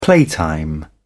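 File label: "playtime", British English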